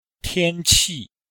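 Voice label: "tian1qi4", Chinese; Cours de Chinois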